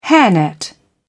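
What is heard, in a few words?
"hairnet", British English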